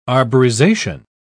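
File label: "arborization", British English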